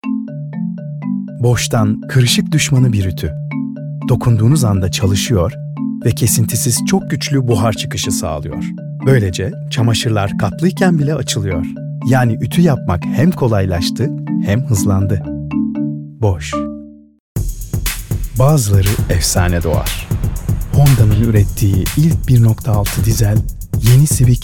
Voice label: Turkish with Music